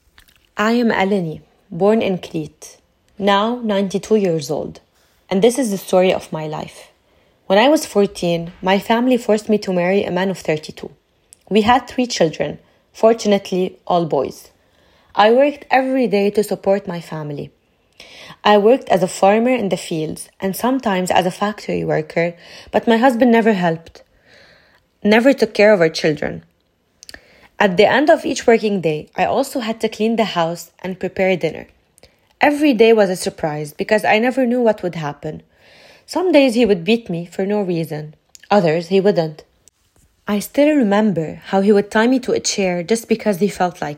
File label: Greece English, Personal Experience; Personal story/experience